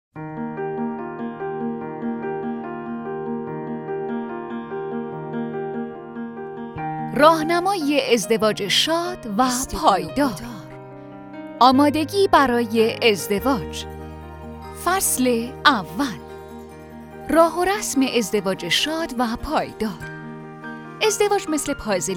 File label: audiobook